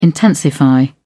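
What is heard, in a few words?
"intensify", British English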